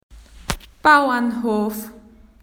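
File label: "farm", Australian English; PictureDictionary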